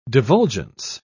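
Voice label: "divulgence", British English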